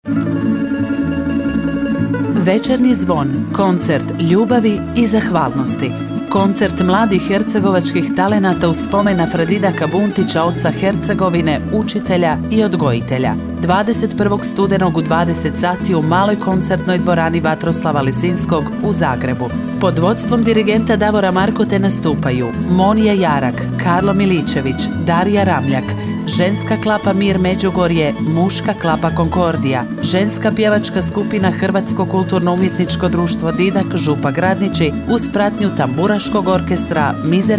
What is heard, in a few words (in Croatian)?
Reklama; Vecernji zvon nova